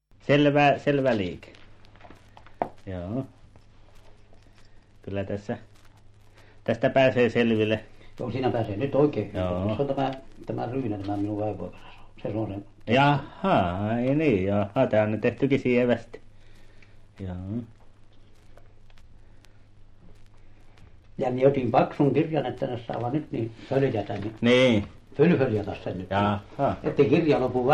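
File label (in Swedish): Övertorneå Norrbotten, dialekter; Folkminnen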